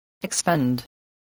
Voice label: "expend", voice